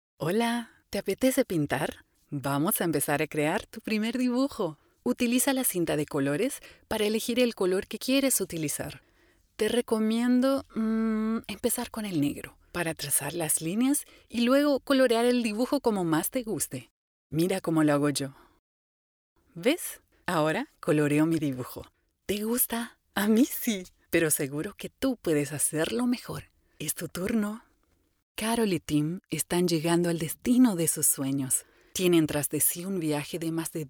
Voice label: Narrativos

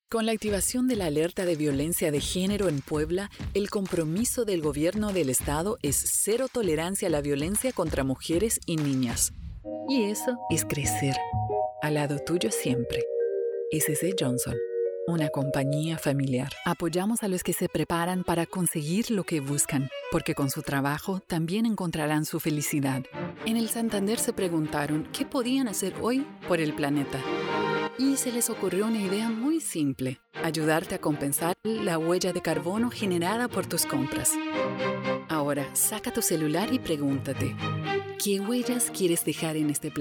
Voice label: Corporativos

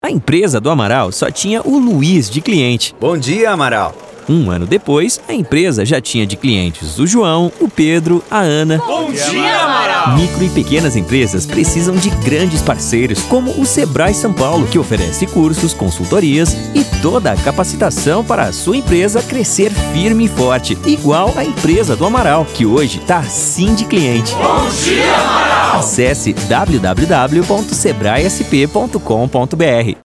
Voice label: SPOT LOCUTOR

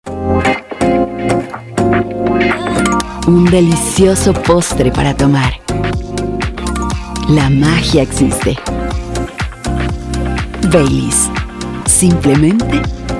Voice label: Demo Esp

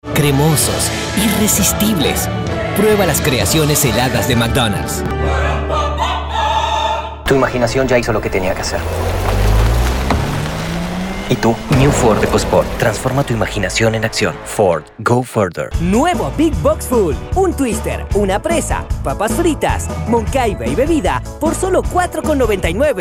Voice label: NEUTRO Comerciales

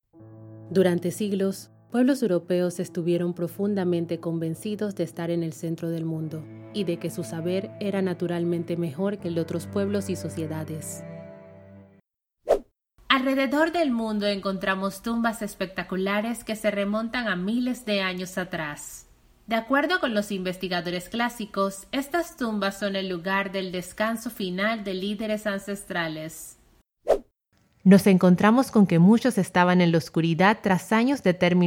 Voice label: Narracion

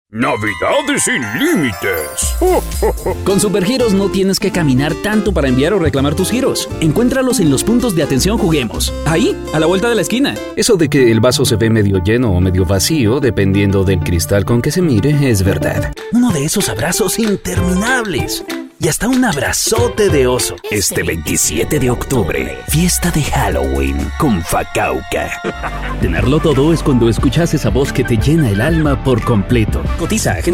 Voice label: Locutor Colombiano

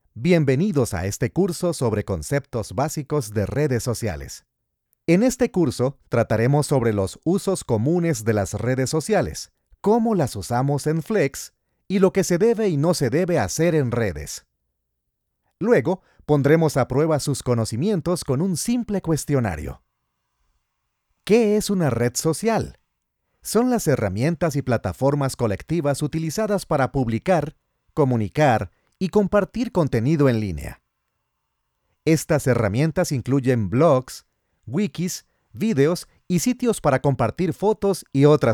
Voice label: E-Learning Didáctico believable cercano confiable

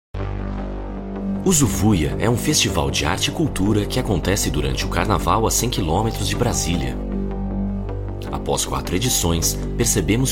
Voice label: Voz Grave; Zuvuya Festival

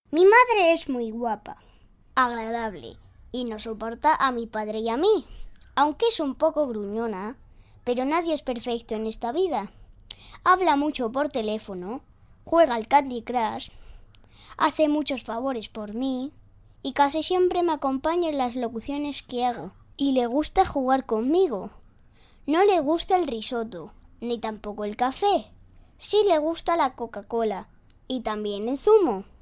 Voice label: Infantil

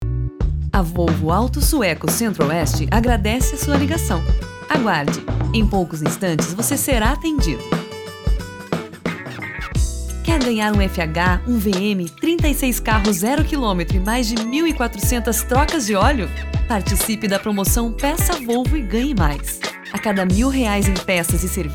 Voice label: Espera Fem; Volvo

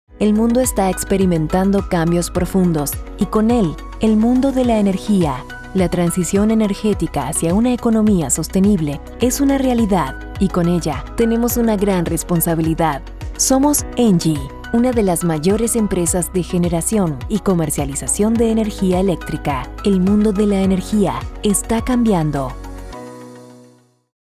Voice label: con musica corporativo neutro; Engie

